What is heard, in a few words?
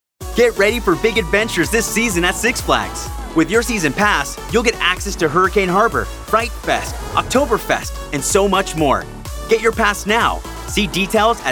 Commercial